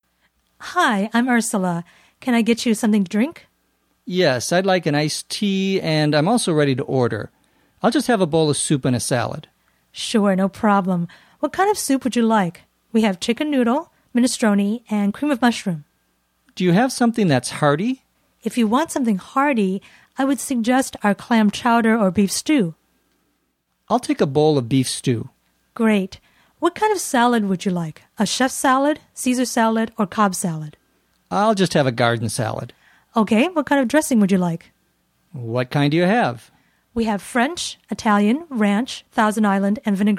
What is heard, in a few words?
Ordering in a Restaurant B (fast); Unit 5